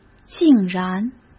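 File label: "竟然", Mandarin Chinese; chinese flashcards; hsk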